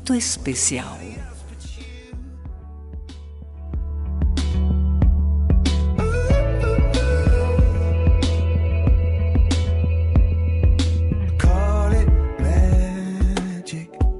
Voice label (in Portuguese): MENSAGEM DE AMIZADE F; Fem